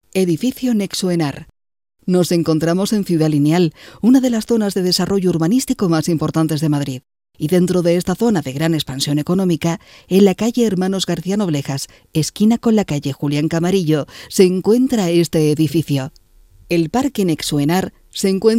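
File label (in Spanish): otros España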